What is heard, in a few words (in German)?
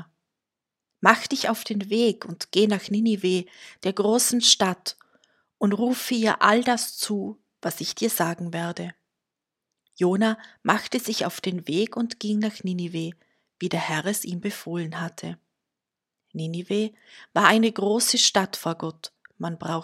Lesung; prophetischer dienst